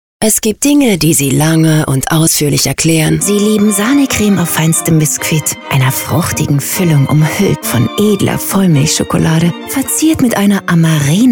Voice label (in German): Spass Mix, Werbemix, Commercial (Werbung), German